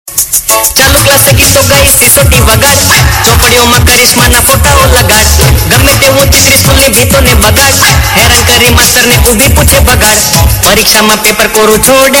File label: Jalsa Comedy, Gujarati Ringtones; Gujarati Mix